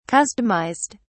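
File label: "customised", British English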